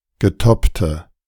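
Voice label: German "getoppte"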